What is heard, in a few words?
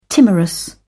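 "timorous", British English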